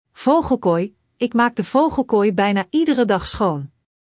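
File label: "vogelkooi", Dutch; Spelling Gr5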